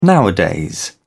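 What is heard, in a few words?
"nowadays", British English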